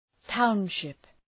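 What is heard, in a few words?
"township", English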